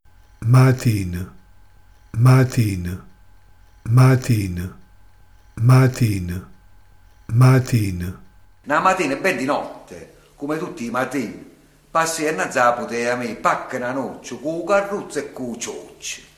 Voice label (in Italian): "matine", dialetto di foggia